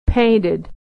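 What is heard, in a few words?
"painted", American English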